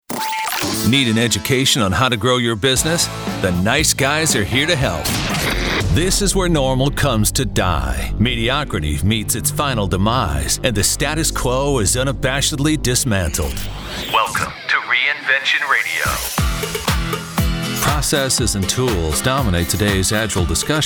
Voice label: Podcast